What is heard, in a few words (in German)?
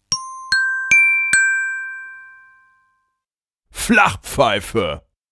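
"Flachpfeife", German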